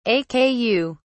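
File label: "aku", British English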